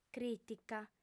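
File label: "crìtica", Sardinian, female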